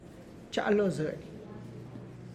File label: I am going to work, Tlacochahuaya Zapotec; (Spanish) voy al trabajo; txa' lo zëny